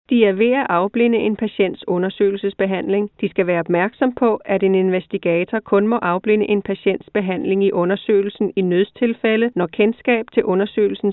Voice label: Danish